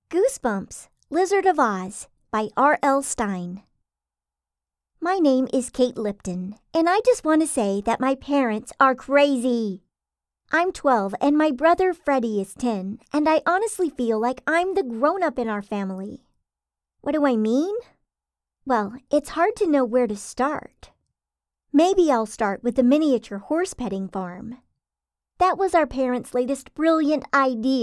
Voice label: Series Audiobook